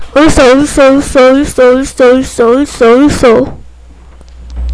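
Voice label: "also", spoken word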